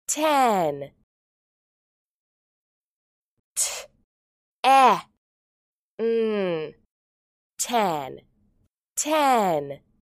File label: "ten", English